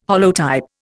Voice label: "holotype", Indian